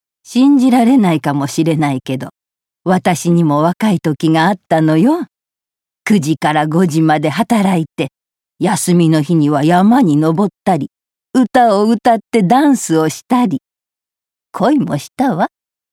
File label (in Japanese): ハスキー老人